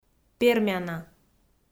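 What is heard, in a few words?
"пермяна", Komi, female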